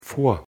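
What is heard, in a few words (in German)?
"vor", German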